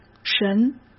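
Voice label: "神", Mandarin Chinese; chinese flashcards; hsk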